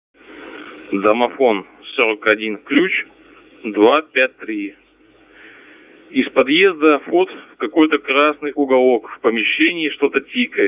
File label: audio Message